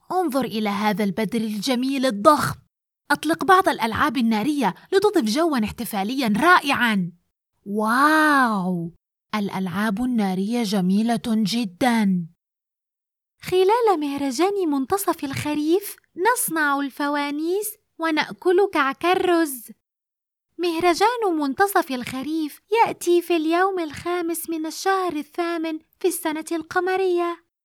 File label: little boy girl, Voice Acting